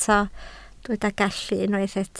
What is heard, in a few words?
"gallu", Welsh